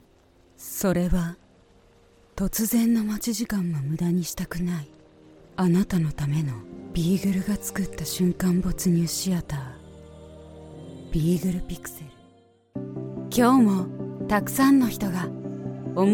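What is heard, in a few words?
Japanese Commercial; GoLocalise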